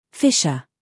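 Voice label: "humor", British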